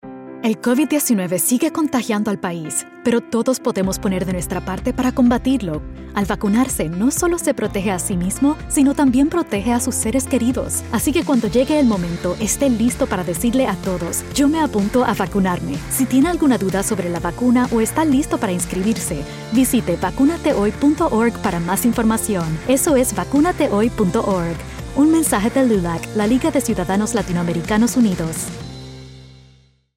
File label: radio psa spanish; lulac; vacunate hoy